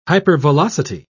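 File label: "hypervelocity", British English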